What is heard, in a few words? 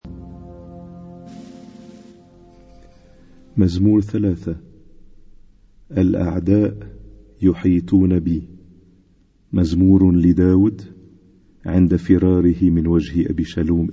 Arabic Psalm Zaboor